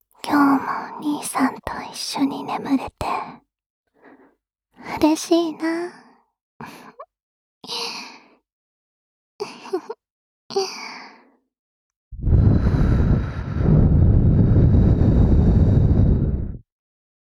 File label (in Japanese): おやすみ添い寝ボイス; いくつになっても妹が、俺の世話を焼きたがる。; KU100バイノーラル